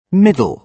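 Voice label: "middle", British English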